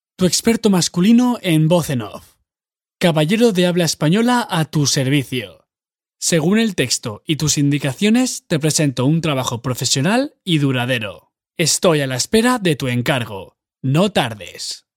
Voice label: Spanish voice over artist